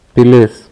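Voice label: "Pilis", Lithuanian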